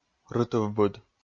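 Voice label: "рытывбыд", Komi, male; kpv audio dict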